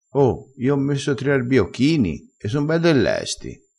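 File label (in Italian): "lesto", crespinese dialettale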